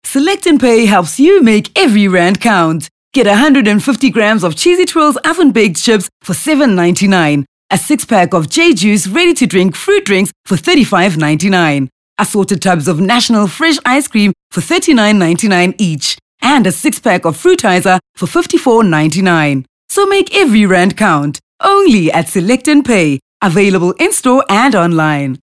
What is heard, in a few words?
English Hard Sell